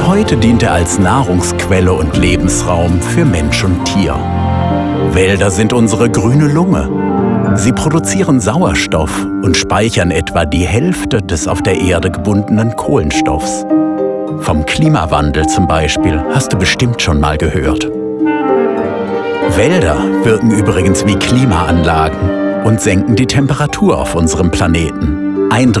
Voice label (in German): Erklärfilm Wald Kinder Deutsch